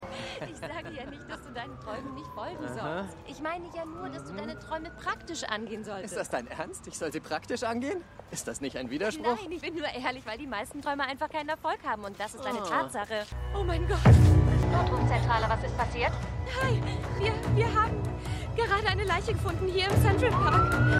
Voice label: Junge Frau Junger Mann Notrufzentrale; LAW & ORDER

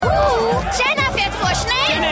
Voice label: Maedchen, Stimmproben

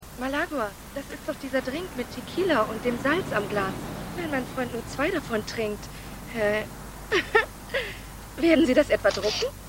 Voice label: Frau

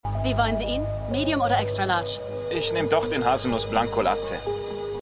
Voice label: Kaffeeverkaeuferin Kundin, Stimmproben; The Flash

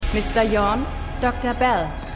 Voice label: Assistentin; AtlantaMedical

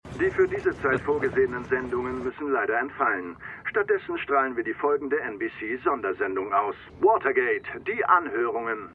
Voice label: TV Sprecher; Gaslit

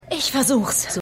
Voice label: Assistentin

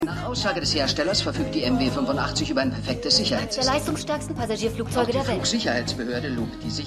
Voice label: Nachrichtensprecher Nachrichtensprecherin

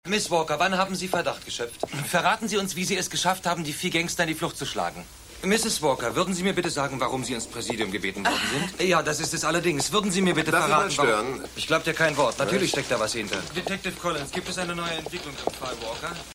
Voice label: Reporter

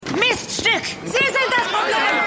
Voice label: Studentin

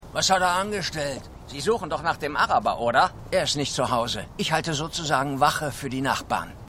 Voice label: Medford Mann; Stimmproben; City On A Hill

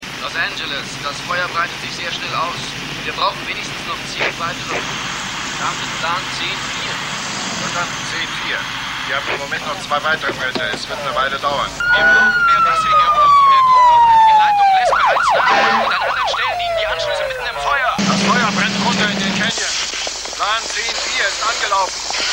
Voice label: Funkstimmen

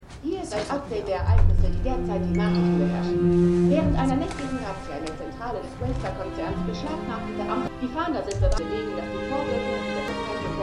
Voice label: TV Sprecherin; Succession

